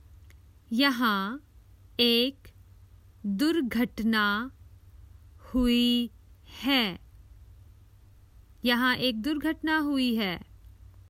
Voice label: Common Hindi Phrases for travel There's been an accident